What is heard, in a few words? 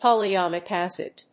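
"POLYAMIC ACID", English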